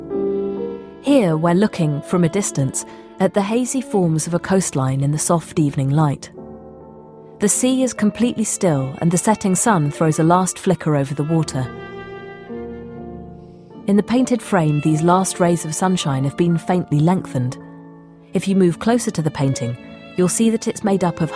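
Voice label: Afrikaans accented English, voice demos; GoLocalise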